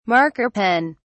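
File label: "marker pen", English, British English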